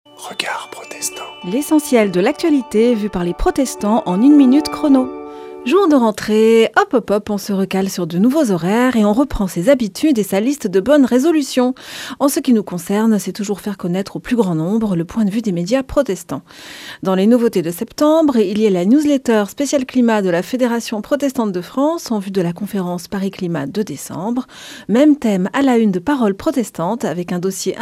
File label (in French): Spot radio; Regards protestants